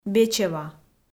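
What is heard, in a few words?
"бечева", Komi, female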